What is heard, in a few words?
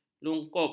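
"lungkup", Malay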